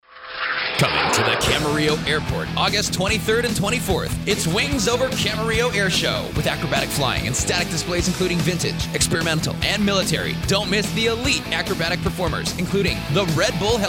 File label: Radio Spot; Cumulus